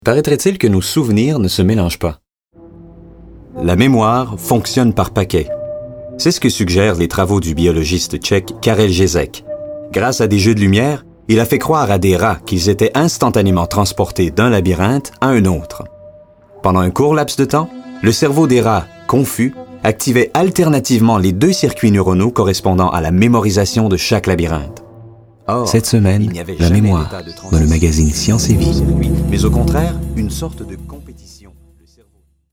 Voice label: Narration